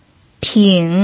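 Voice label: "ting", Mandarin Chinese pinyin, third tone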